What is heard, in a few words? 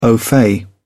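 "au fait", British English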